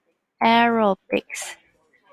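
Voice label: "aerobics", Taiwanese, female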